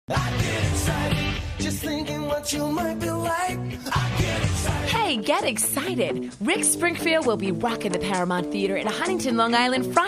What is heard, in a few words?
Concert Promo Internet Radio